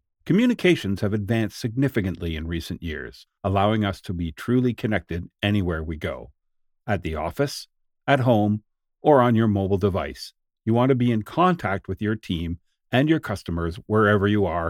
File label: Narration